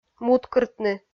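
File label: "муткыртны", Komi, female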